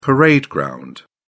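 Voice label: "parade ground", British English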